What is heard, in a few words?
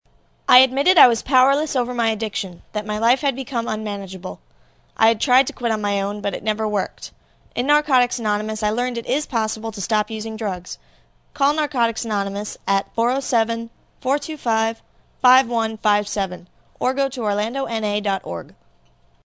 Female